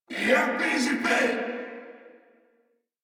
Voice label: Reload Corpus Venus Male; Warframe; Battle Barks

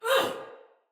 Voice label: Attack Sinecure Soldier Female

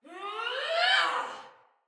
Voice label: Battle Cry Sinecure Soldier Female; Barks; Dialog

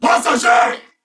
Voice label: Attack, Corpus Venus Male; Warframe; CorpusVenusMaleA

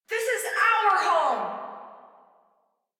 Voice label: Rally Cry; Warframe; The Index Quest; Colonists; Welphy; en

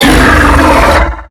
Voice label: Octopede Pain; Warframe; MiTW; Battle Barks

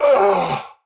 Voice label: Pain; Warframe; Tau; Dax; Knight

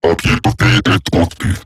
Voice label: Patrol Robot Large, Barks, Battle; Warframe; Eximus Corpus Robotic Large

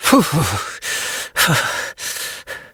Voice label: Operator Voice; Warframe; Operator Mission; Generic Cold Shiver

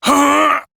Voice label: Pain, Male, en; Railjack Crew; Arbiters Hexis

